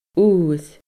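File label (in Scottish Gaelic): "uir", Scottish Gaelic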